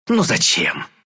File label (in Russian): invis ru; Dota 2